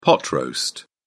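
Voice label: "pot roast", British English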